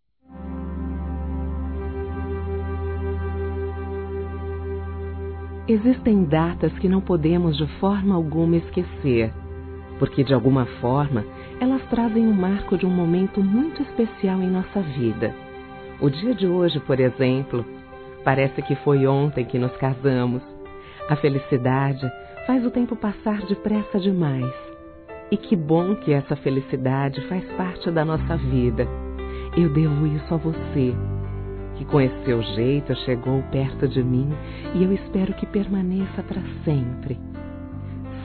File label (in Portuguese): Romantica Aniversário Casamento Feminino; Telemensagens; Românticas